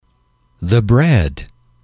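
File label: "the bread", English, male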